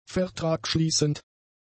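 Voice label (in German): "vertragschließend", German; adjectives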